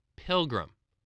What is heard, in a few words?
"pilgrim", voice, English